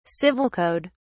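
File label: "civil code", British English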